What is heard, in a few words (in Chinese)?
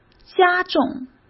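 "加重", Mandarin Chinese; hsk; chinese-flashcards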